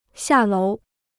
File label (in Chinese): "下楼", Chinese